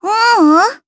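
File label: Deny, Japanese; kingsraid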